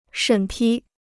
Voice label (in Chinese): "审批", Chinese